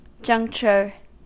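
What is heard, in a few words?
"juncture", American English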